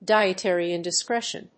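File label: "dietary indiscretion", English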